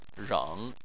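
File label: "reng", Chinese, tone 3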